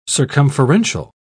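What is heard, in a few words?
"circumferential", British English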